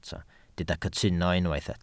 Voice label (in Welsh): "cytuno", Welsh